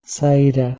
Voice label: "saira", Proto Germanic